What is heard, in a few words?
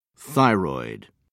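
"thyroid gland", British English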